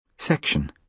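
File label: "section", British English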